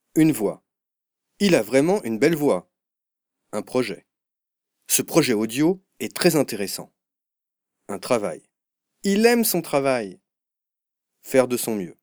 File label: french training e-learning